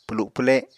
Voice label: "pwlu pwle", Apatani

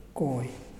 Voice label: "mountain", Muyuw; Lae Unitech

